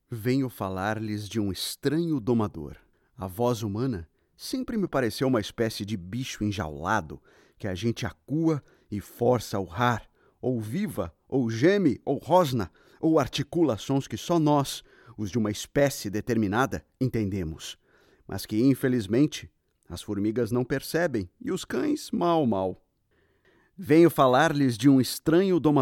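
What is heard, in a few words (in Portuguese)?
Português - Brasileiro, Recitação com variações, Voz Madura, Masculino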